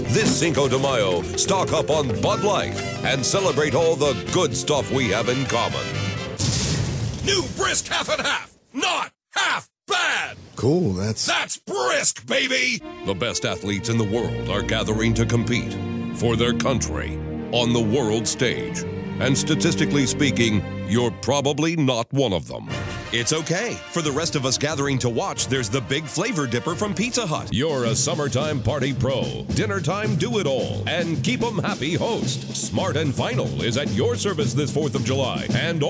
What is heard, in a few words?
radio commercials